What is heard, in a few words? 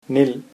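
stop, Tamil, male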